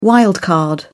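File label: "wild card", British English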